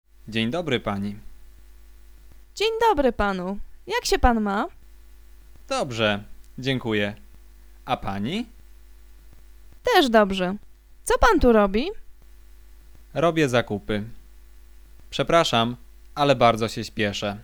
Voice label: conversation; lektorek; firstyear